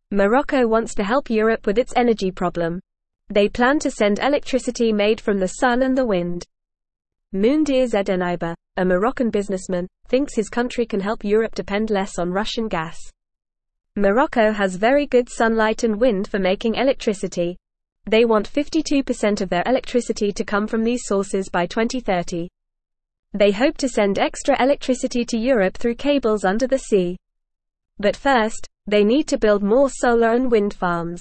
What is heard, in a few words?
English Newsroom Beginner FAST Reading; Morocco Sends Sun and Wind Power to Europe